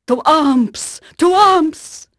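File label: French female; Pirates Of The Caribbean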